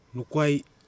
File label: "nokwai", Nafe (Kwamera); [nokwai] arrow